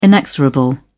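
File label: "inexorable", British English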